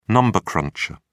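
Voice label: "number cruncher", British English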